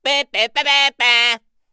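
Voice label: male clown mocking voice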